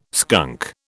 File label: "skunk", British